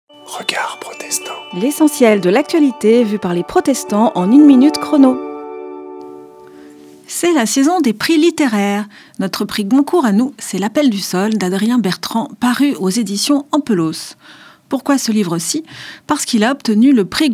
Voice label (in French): Spot radio; Regards protestants